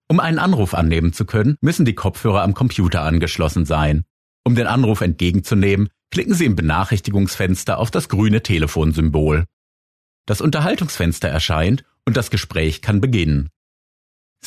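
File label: German, male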